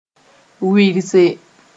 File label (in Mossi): "wɩrse", moore